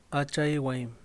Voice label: "padres", Yaqui; Vocabulary